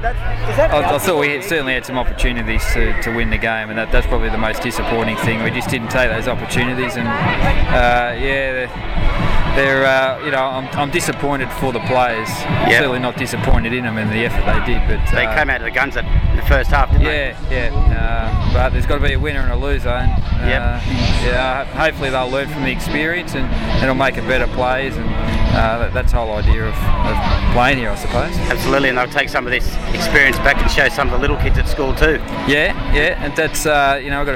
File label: post grand final interview; ARL Schoolboys Cup - GRAND FINAL - Matraville SHS v The Hills SHS